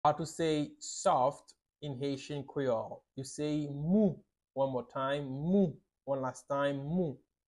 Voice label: "Mou" Haitian Creole pronunciation by a native Haitian Creole teacher; How to say Soft in Haitian Creole